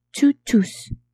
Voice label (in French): "tu tousses", French; tousser